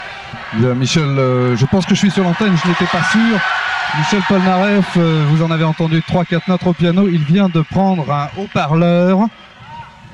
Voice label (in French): Présentateur, Live; Forest National de Bruxelles; 1975